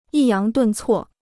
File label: "抑扬顿挫", Chinese